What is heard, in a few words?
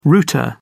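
"router", British English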